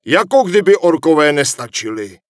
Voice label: Human Male Official Pissed; NPC; wowpreklad; czwow